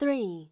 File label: lady; Samsung; GT-B7320 Omnia PRO ATT